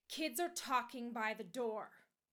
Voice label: angry